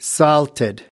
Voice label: "salted", us